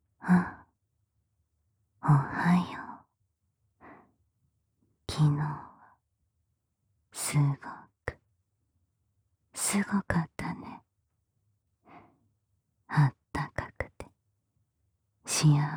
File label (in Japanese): 目覚ましボイス